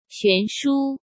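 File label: "悬殊", Mandarin Chinese; hsk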